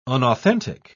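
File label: "unauthentic", British English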